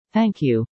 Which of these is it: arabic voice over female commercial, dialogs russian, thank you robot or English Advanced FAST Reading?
thank you robot